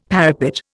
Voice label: "parapet", Indian; Dictionary